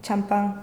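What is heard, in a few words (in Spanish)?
"champan", Spanish, Andalusia, Sevilla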